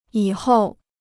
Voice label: "以后", Chinese

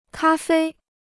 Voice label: "咖啡", Chinese

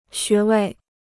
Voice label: "穴位", Chinese

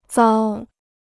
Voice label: "糟", Chinese

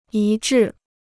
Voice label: "一致", Chinese

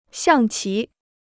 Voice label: "象棋", Chinese

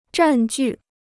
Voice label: "占据", Chinese

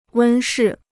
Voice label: "温室", Chinese

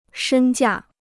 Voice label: "身价", Chinese

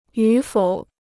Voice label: "与否", Chinese

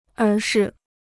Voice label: "而是", Chinese

